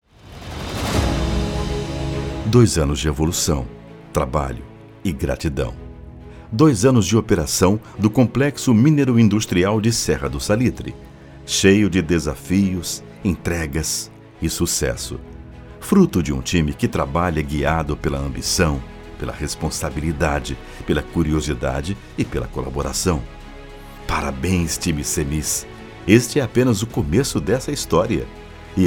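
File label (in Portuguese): Voz Padrão - Grave, Oração, Masculino, Português - Brasileiro; Voz Madura; Serra do Salitre Minerais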